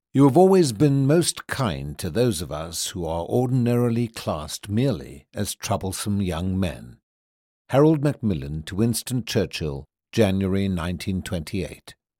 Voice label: audiobook sample historical fiction

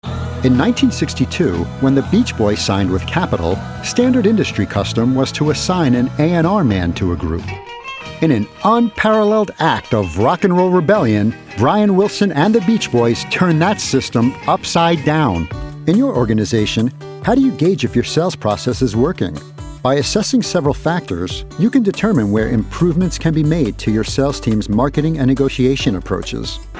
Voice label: Narration Voiceover

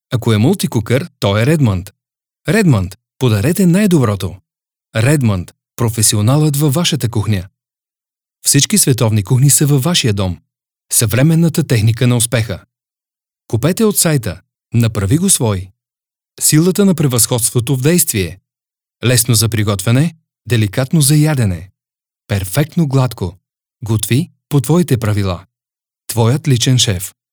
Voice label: Bulgarian VO

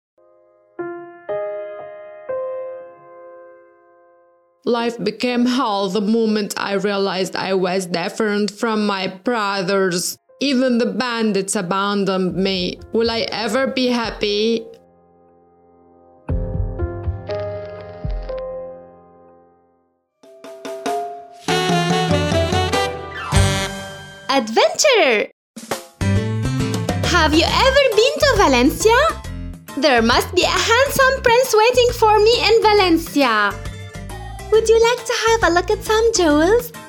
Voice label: English sample

